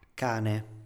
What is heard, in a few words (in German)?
"cane", Italienisch; a a cane (Hund); Standard-Italienisch